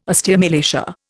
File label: "osteomalacia", Indian